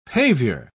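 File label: "pavior", British English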